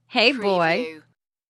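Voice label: Woman Says Hey Boy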